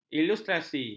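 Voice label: "ilustrasi", Malay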